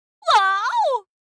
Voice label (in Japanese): システムボイス